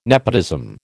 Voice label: "nepotism", American